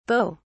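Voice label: "beauts", British English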